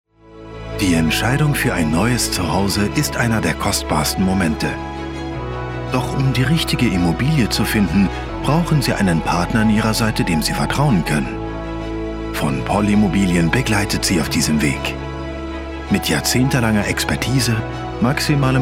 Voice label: german real estate; Deutschsprecher aus Berlin